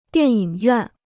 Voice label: "电影院", Chinese

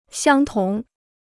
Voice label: "相同", Chinese

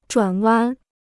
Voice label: "转弯", Chinese

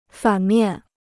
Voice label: "反面", Chinese

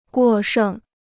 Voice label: "过剩", Chinese

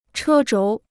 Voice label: "车轴", Chinese

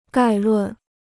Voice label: "概论", Chinese

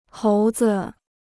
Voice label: "猴子", Chinese

